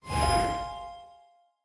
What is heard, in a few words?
vo, zh-cn; sonna